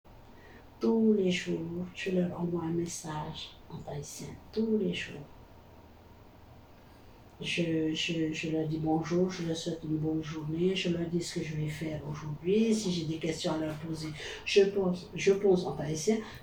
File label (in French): message tahitien; tahitien quotidien